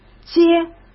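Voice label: "接", Mandarin Chinese; chinese-flashcards; hsk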